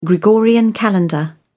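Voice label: "gregorian calendar", British English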